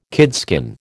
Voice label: "kidskin", American

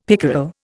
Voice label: "pickerel", Indian; Dictionary

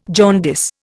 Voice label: "jaundice", Indian

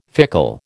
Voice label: "fickle", American

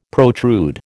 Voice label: "protrude", American

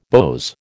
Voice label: "beaux", American

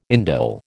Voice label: "indole", American

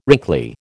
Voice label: "wrinkly", American

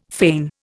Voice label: "feign", Indian

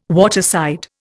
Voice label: "waterside", Indian

